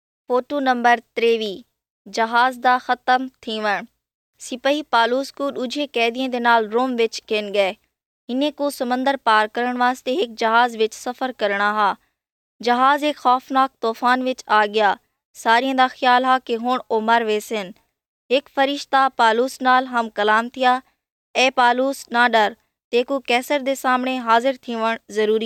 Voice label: saraiki; lll 8 acts of the holy spirit; picture 23 the shipwreck